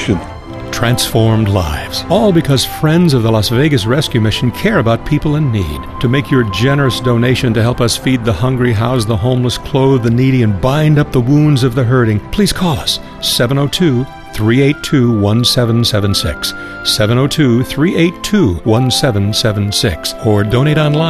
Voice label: christmas spot